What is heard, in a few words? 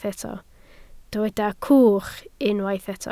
"cwch", Welsh